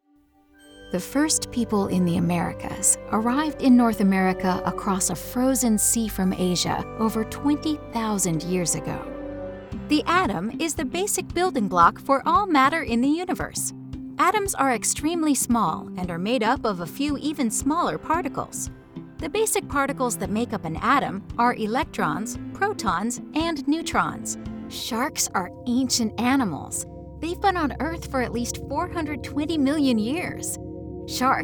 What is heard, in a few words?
YA eLearning